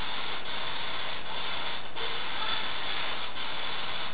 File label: Ghost Radio Kids Voice; Lunatic House 2nd Floor South West Room